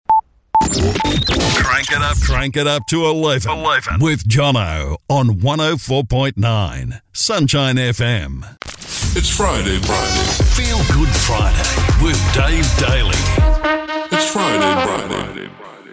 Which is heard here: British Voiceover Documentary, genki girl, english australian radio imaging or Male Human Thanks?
english australian radio imaging